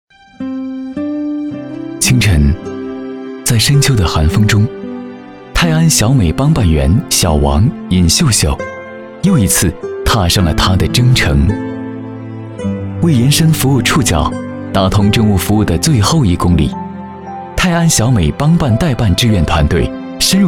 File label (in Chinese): 男, 故事纪录片; 《小美大爱》